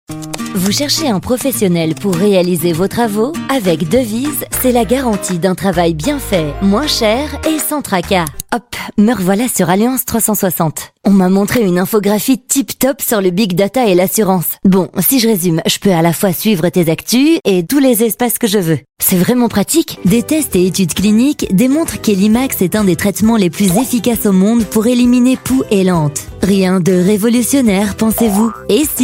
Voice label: corporate

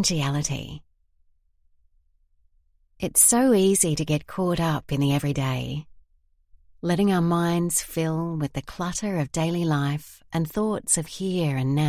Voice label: meditation purpose possibility full session

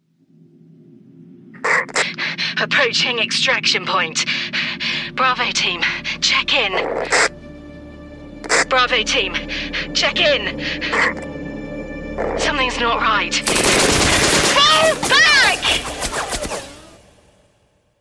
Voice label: characters strong gaming action

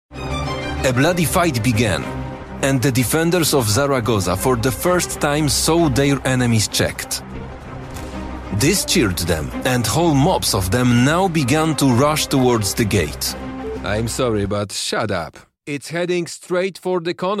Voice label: english voice over

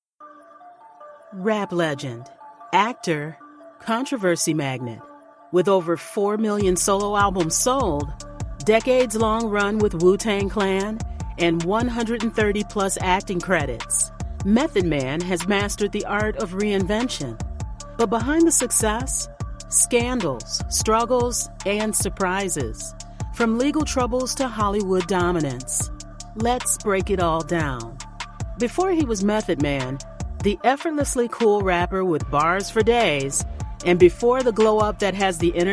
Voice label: voiceover

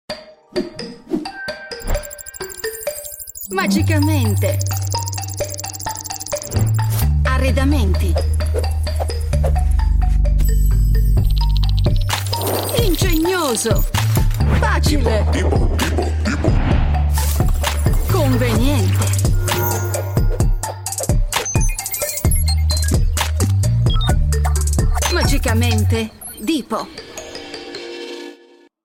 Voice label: commercial; magicamente dipo

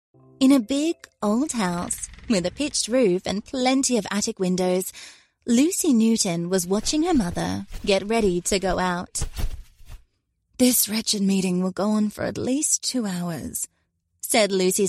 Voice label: audiobook

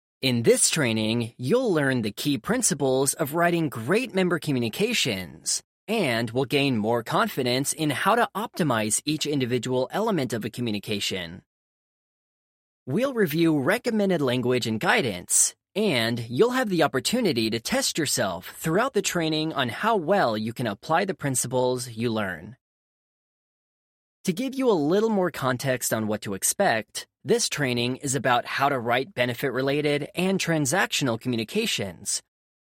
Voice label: elearning

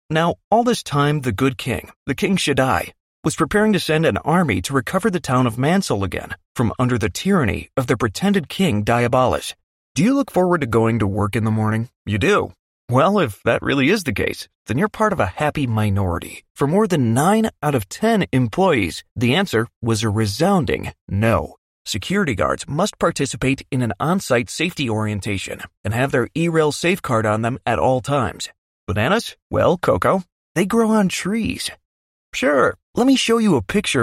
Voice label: e-learning

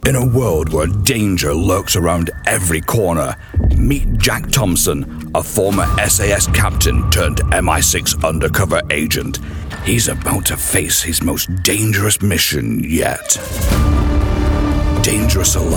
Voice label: secret agent announcer

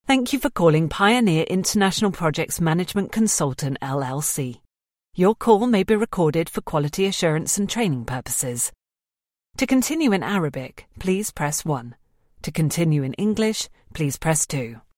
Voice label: phone; pioneer

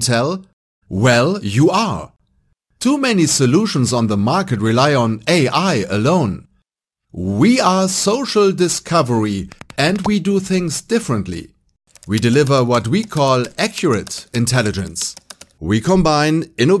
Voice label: english with german accent; socialdiscovery

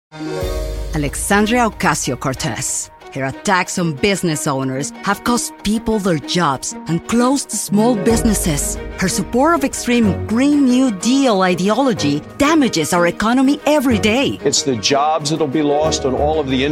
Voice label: political english

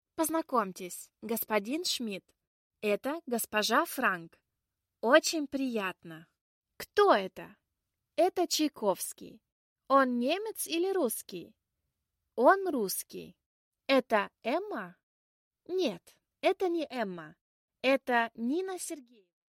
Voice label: elearning language russian

